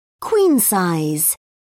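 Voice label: "queen-size", British English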